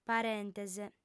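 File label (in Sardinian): "parentes", female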